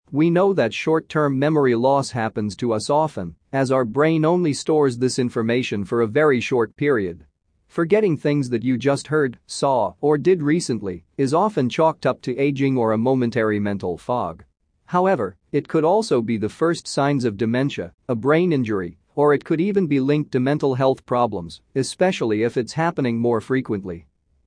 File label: Memory Loss Male Audio Snippet